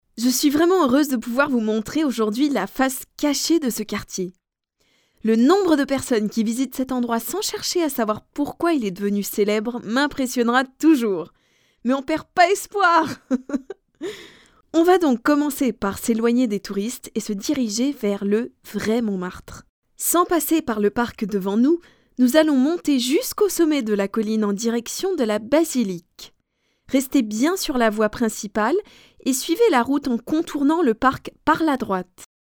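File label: French female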